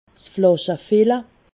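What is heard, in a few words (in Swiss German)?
"flaschefille", Haut Rhin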